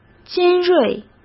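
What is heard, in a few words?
"尖锐", Mandarin Chinese; hsk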